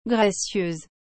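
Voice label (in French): "gracieuse", French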